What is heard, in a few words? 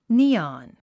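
"neon", English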